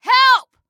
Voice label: Team Help, Female